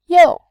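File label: "you", Mandarin Chinese, tone 4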